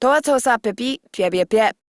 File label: Patrol Female; Warframe; Modular Corpus Female; Battle Barks; en